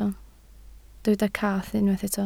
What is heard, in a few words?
"cath", Welsh